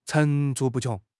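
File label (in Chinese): "层出不穷", Chinese; HSK6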